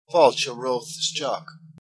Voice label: "welcome", Irish